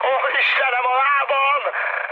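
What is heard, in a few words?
Hungarian damaged pilot mood high, speech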